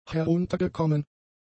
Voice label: "heruntergekommen", German; adjectives